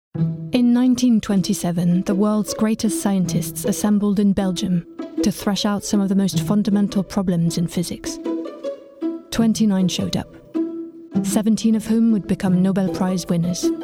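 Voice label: documentary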